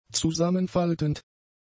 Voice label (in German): "zusammenfaltend", German; adjectives